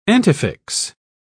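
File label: "antefix", British English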